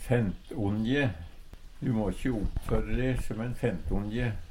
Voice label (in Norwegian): "fentongje", Numedalsmål; Substantiv hankjønn; Du må kje oppføre de som ein fentongje.